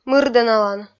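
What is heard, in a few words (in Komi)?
"мырдӧналан", Komi, female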